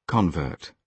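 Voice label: "convert", British English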